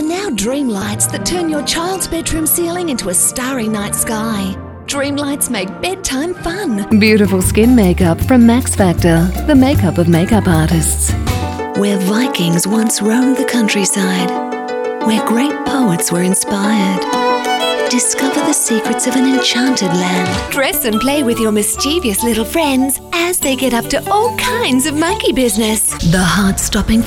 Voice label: general commercial voice over